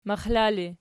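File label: "maxla" ergative, Archi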